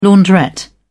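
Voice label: "launderette", British English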